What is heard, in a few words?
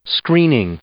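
"screening", English; noun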